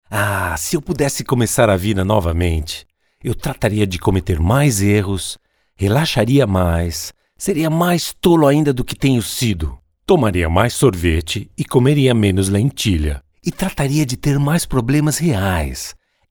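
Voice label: Brazilian Portuguese, General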